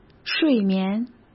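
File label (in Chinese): "睡眠", Mandarin Chinese; hsk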